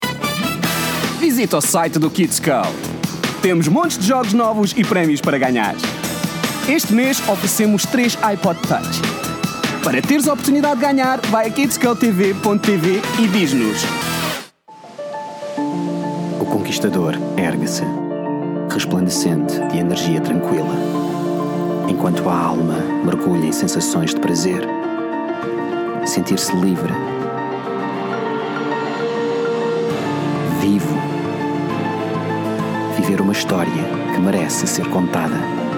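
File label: European Portuguese, General; GoLocalise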